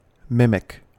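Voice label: "mimic", US English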